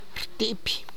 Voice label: "whistles", tlacochahuaya